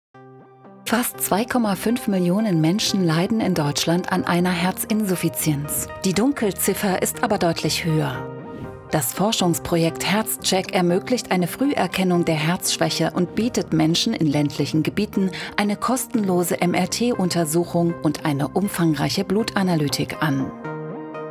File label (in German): Erklaerfilm, Sprecherin; Deutsches Herzzentrum Charite Berlin; Deutsches Herzzentrum Berlin | Erklärfilm